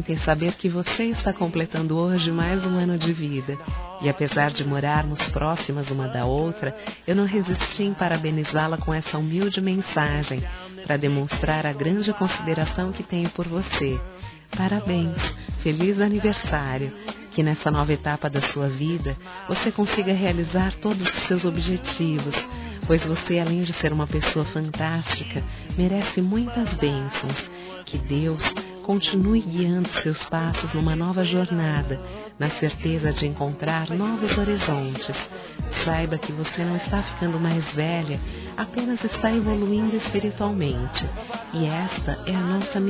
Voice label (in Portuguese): VIZINHA F; Aniversários; Aniversários diversos; fem